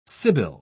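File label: "sybil", British English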